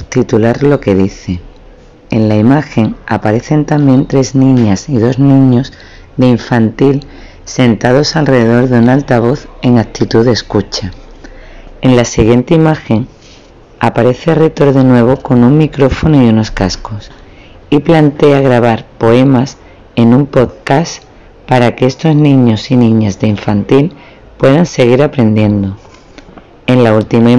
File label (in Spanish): audiodescripcion scratch